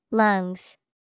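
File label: "lungs", English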